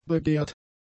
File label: "begehrt", German; adjectives